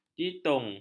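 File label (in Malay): "jitung", Malay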